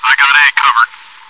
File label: chitchat, bot, voice commands; svencoop